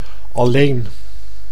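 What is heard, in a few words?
"alleen", Dutch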